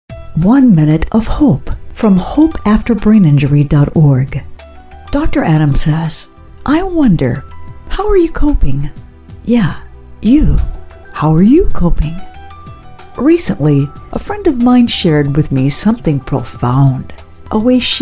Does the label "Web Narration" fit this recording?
Yes